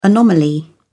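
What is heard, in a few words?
"anomaly", British English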